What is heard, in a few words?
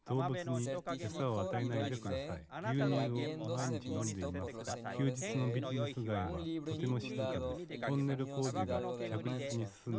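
male instantaneous mix; SiSEC10; underdetermined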